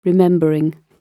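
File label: "remembering", British English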